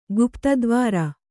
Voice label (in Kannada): "ಗುಪ್ತದ್ವಾರ", Kannada